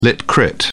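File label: "lit crit", British English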